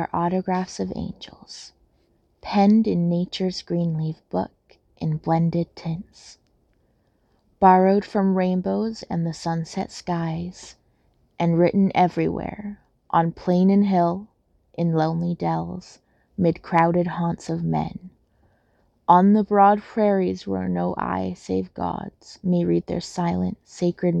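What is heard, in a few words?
A Poem about Flowers, female; F4A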